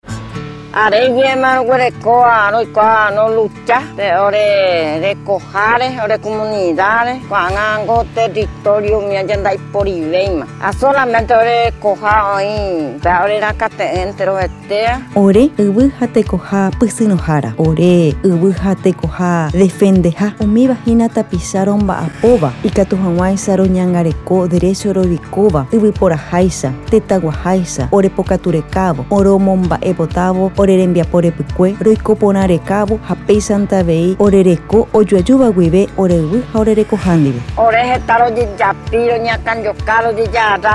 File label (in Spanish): Spot Radial; Defensores Guarani